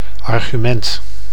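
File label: "argument", Dutch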